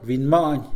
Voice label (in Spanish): "pensamiento", Mixe, hombre; Cognición; INALI